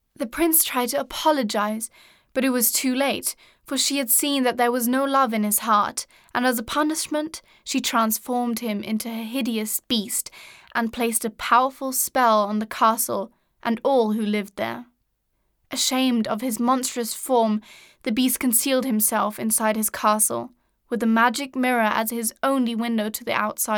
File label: british english female